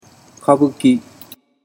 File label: "kabuki", Japanese